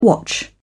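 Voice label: "watch out", British English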